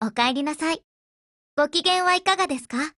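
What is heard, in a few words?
HELLO, Japanese; GF Alarm; BrowningHP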